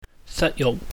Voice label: "satyuhk", Cantonese; body; 身體

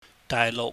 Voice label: "daaihluhk", cantonese

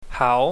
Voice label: "hau", chinese

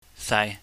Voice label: "sai", Chinese

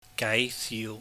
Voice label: "gaaisiu", Cantonese

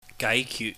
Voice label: "gaaikyut", Cantonese

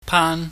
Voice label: "paan", Chinese

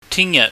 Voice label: "ting yaht", Cantonese

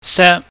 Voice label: "se", Chinese

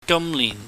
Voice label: "gamnihn", Cantonese

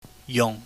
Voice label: "yung", pingyam

